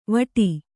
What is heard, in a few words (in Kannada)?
"ವಡ", kannada